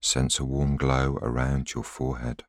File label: voiceover